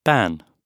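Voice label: "ban", British English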